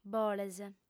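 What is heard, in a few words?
"boles", lingua sarda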